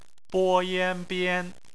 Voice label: bian, Chinese Phonetics

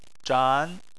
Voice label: "zhan2", Chinese

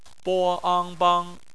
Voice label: bang, Chinese Phonetics

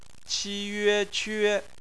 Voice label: "que", Chinese phonetics

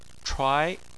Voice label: "chuai2", chinese

